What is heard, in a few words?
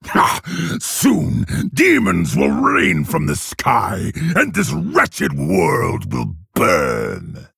Male Orc Idle